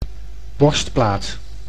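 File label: "borstplaat", Dutch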